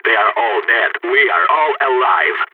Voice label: radio bot end clean, balkan; Counter-Strike Global Offensive